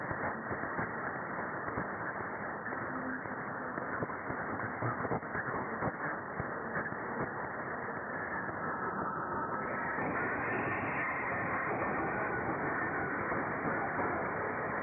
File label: 9,45MHz female voice